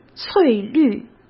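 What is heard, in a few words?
"翠绿", Mandarin Chinese; chinese-flashcards; hsk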